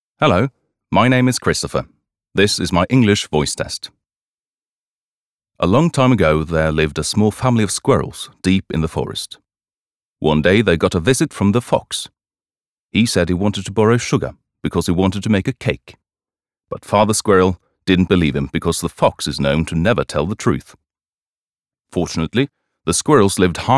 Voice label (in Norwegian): no engelsk; norsk; mann